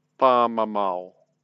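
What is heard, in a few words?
"pamamao", Māori; sealions; protecting pakake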